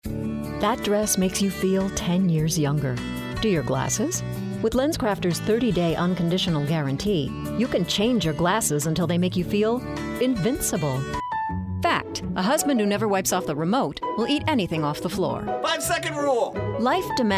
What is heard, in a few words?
Commercial Female, Wise, Warm; Wise, Clearn, Warm